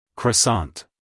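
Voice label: "croissant", American English, male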